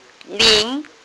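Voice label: "ling2", Mandarin Chinese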